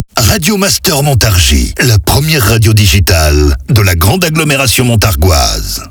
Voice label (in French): medium Accap, Voix Off; Montargis; 1ère radio Montargis